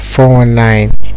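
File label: "419", Nigerian Pidgin, male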